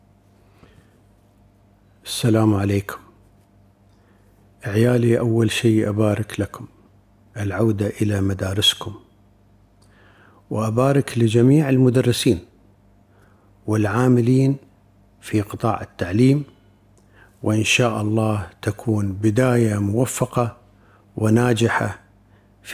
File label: speech to the students Voiceover